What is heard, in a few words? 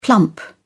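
"plump", British English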